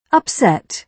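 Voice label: "upset", British English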